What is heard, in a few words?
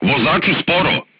voice message drive slowly, crew tanks serbian